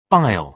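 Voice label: "bile", British English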